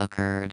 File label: occurred, your everyday robot voice; dry; Leevaudio; Fourbit